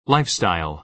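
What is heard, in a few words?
"lifestyle", American English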